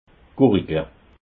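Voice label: "gurigle", Alsatian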